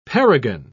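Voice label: "perigon", British English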